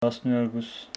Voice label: Homshetsi twelve